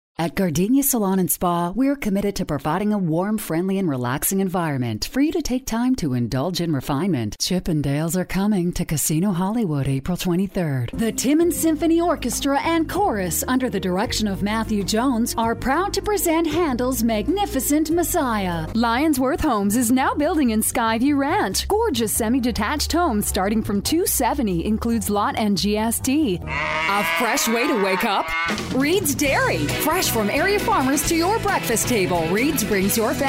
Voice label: Commercial Female, Commercial Voiceover, TV and Radio